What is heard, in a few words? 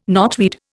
"knotweed", Indian